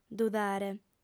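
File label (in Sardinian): "dudare", female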